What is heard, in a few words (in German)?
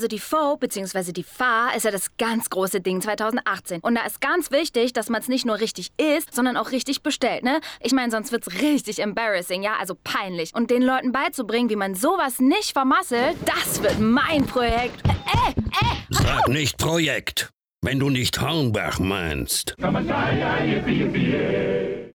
funk Bloggerin